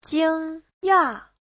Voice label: "jing1 ya4", chinese